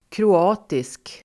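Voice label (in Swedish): "kroatisk", Swedish; kroatisk adjektiv, Croatian, Croat; Uttal: [kro'a:tisk]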